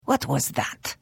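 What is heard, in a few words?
Idle, Khajiit female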